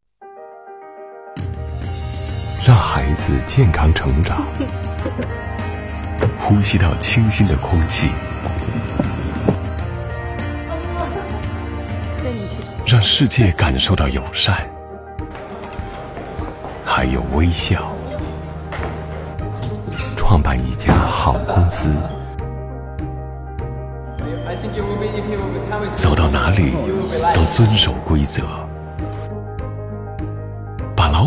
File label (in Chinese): 公益广告 爱国篇